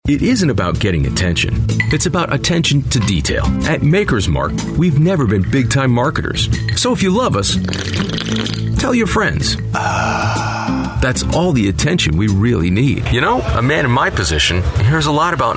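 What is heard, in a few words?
American English, male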